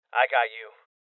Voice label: Voices Heal Male Young; Rebel Cops